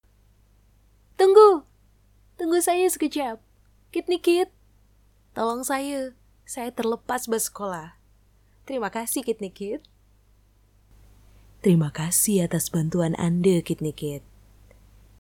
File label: VOICES MALAY